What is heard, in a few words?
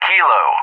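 "Kilo", English; JTAC; Alphabet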